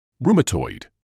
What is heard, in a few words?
"rheumatoid", English